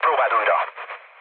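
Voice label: Hungarian bomb failure mood low, speech; War Thunder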